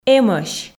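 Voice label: "эмӧсь", Komi, female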